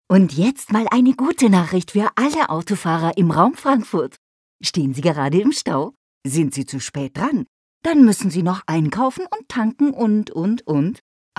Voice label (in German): Freundlich